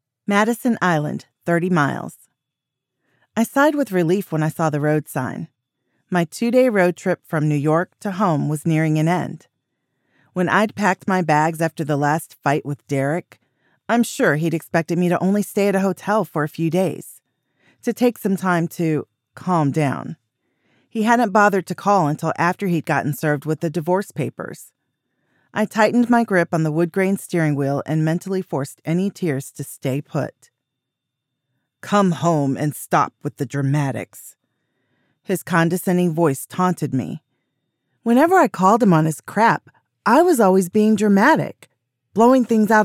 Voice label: Fiction 1st Person POV